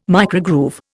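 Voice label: "microgroove", English, Indian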